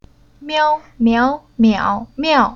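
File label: "Miao", Chinese syllable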